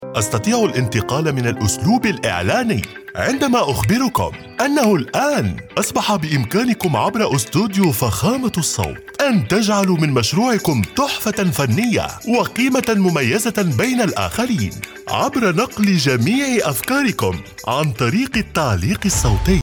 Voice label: arabic promos